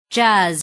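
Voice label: "jazz", English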